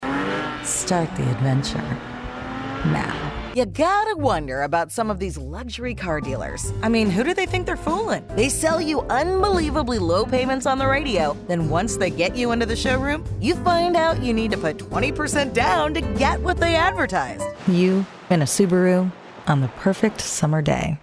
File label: American English Auto